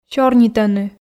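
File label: "сёрнитӧны", Komi, female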